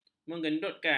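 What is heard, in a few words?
"mengedutkan", Malay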